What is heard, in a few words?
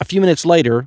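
few minutes later announcer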